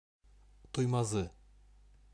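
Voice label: "Туймазы", Bashkir; Республике Башкортостан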